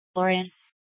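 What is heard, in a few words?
police dispatch radio, scanner; Mesa PD Dptmnt; 1 Fiesta Dispatch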